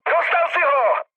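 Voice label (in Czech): Czech player killed enemy, mood medium; speech; War Thunder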